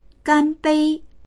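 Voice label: "gan1bei1", Mandarin Chinese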